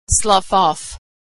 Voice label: "slough", pronunciation